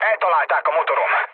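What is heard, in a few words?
Hungarian damaged engine mood low, speech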